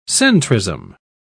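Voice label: "centrism", British English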